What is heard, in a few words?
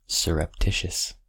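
"surreptitious", American English; pronunciations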